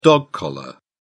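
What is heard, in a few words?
"dog collar", British English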